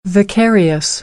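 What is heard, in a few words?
"vicarious", American English; AmericanBritish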